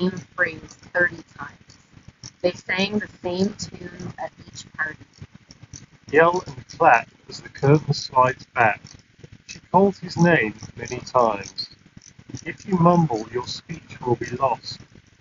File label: Wind microphone sample; Sony WH-ULT900N ULT WEAR